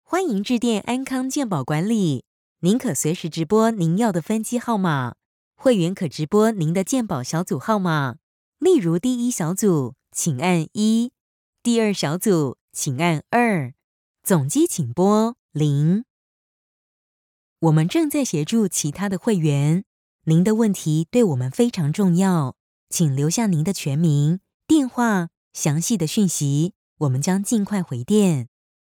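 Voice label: Chinese Taiwanese, IVR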